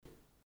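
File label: "а" Komi, female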